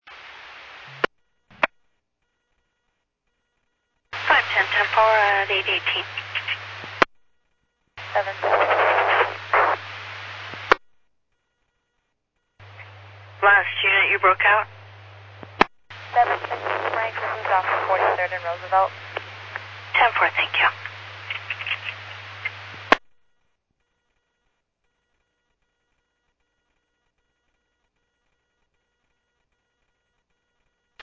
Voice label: Medevac, scanner; NativeAirAmb